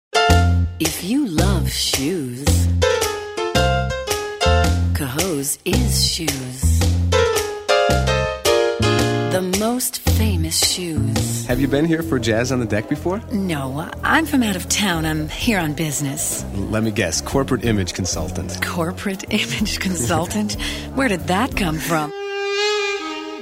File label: female voice